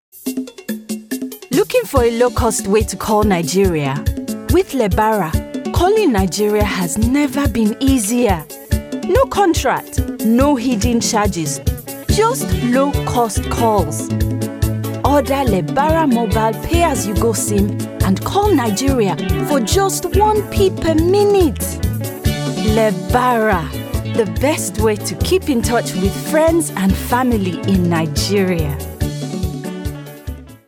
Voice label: female english and african nigerian accent commercial